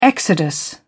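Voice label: "exodus", British English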